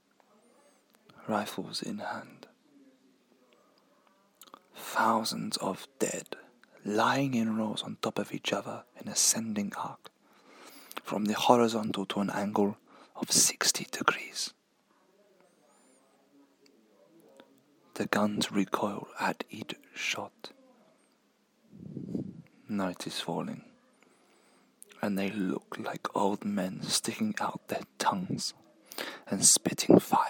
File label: french officer monologue